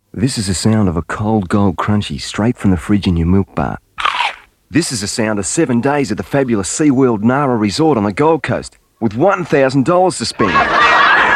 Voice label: Voice Over; Cadbury Crunchie